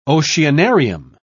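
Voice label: "oceanarium", British English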